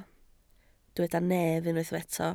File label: "nef", Welsh